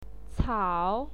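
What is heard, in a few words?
"cao", Mandarin Chinese, third tone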